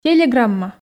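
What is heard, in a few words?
"телеграмма", Komi, female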